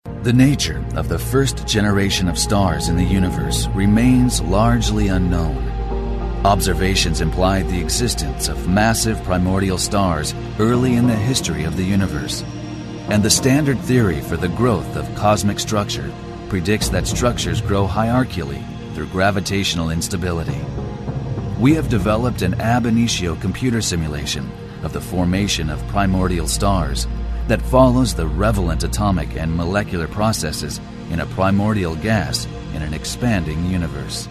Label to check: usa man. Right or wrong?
Right